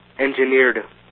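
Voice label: "engineered", US English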